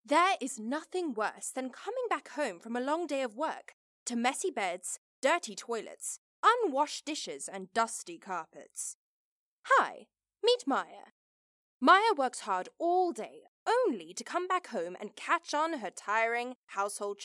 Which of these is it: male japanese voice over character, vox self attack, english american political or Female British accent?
Female British accent